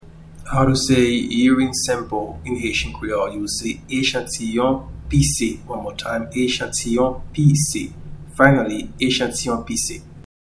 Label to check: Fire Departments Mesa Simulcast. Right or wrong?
Wrong